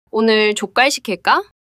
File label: neutral